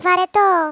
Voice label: "boring", Greek; Worms Armageddon

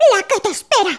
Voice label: "JUST YOU WAIT", Spanish

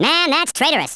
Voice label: "traitor", US Sports, speech

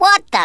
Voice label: WHAT THE, Australian; Worms Armageddon

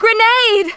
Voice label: "GRENADE", Smooth Babe, Speech; Worms Armageddon

